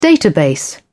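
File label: "database", English, British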